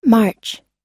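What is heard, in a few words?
"March" English, us; March – Março; Datas em Inglês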